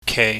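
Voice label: "kei", Cantonese, low falling tone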